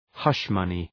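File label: "hush money", English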